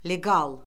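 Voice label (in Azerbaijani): "leqal", Azerbaijani; Orfoqrafiya Lüğəti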